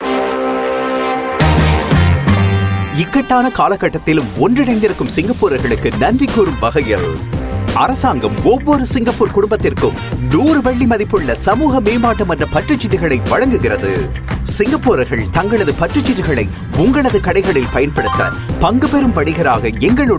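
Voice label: Tamil Singapore; CDC Vouchers Scheme Merchants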